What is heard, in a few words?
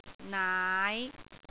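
"naai5", Cantonese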